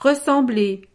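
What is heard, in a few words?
"ressembler", French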